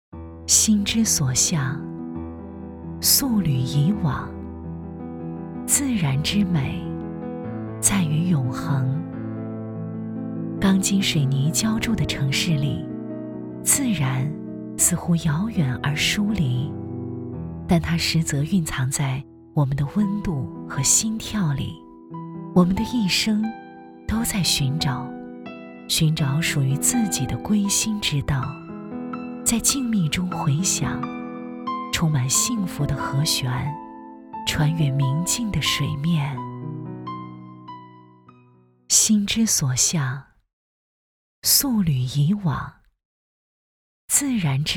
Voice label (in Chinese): 女 广告 地产; 卓正颐和雅园